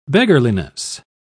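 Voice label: "beggarliness", en, uk British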